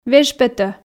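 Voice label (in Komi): "веж петӧ", Komi, female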